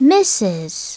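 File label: "Mrs", English; SoftKey; WordBank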